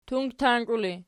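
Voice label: "tungtank" nominative, Archi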